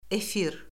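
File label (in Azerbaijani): "efir", Azerbaijani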